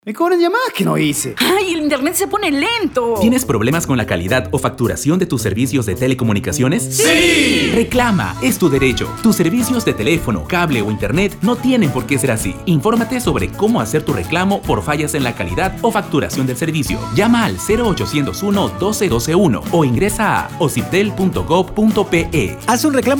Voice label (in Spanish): spot radial; derecho informado